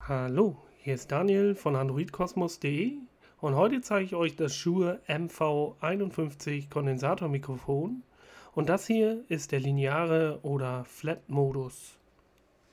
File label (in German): Shure MV51 flatmodus